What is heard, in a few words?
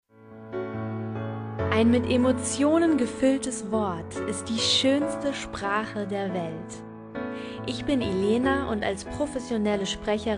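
German, female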